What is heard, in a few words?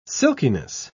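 "silkiness", British English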